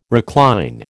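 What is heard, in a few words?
"recline", American English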